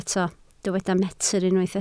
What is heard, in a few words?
"metr", Welsh